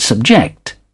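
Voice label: "subject", English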